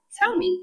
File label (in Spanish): Voice Acting es